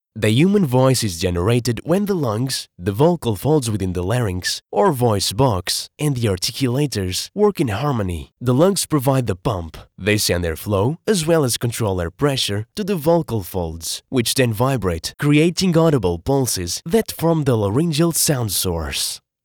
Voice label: Medical Voice Explanation